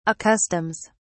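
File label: "accustoms", British English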